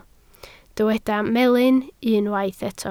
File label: "melyn", Welsh